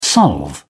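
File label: "solve", English, British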